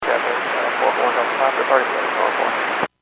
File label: Airports 122.7, Radio; KSTE